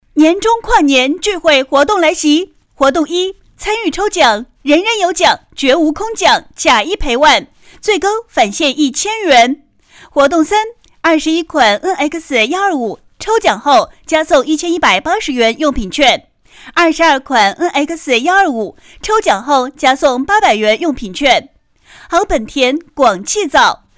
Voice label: 女 促销广告 年终跨年 激情; 洪亮激情; 轻松活泼

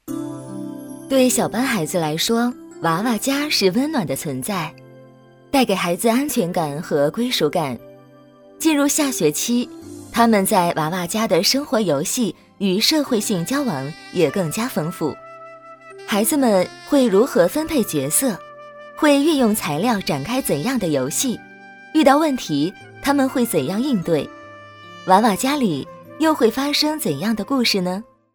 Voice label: 女 学校宣传解说 幼儿园活动; 年轻甜美; 年轻稳重

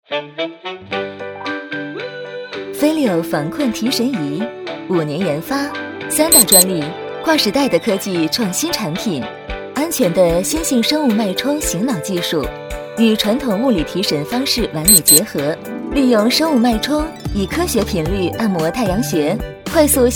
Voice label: 女-产品介绍- 活力甜美; 防困提神仪; FILIO

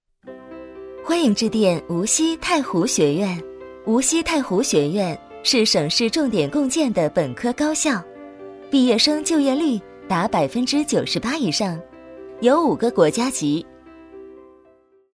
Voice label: 女, 欢迎致电无锡太湖学院, 甜美

